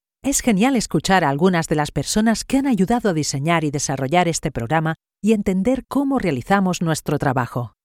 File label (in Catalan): corporativa, locutora